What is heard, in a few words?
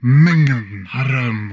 humanoid male; Stellaris; humanoids